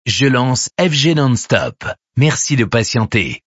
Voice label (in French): lancement radio; fgnonstop